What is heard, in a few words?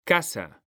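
"CASA", Spanish, male; mekanta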